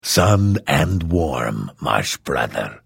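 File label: Hello, Vo; Morrowind GOTY GOG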